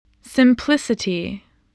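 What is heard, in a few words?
"simplicity", spoken word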